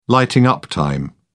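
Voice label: "lighting up time", English, British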